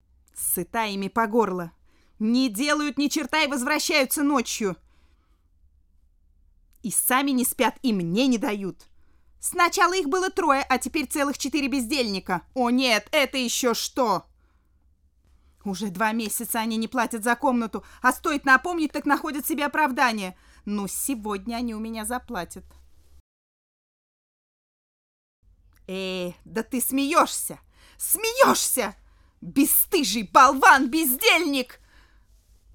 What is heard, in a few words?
russian f character